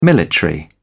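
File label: "military", British English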